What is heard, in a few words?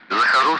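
Speech Russian; Allied Flight; DCS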